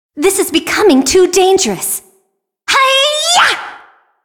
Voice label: cinematic voice; lineage2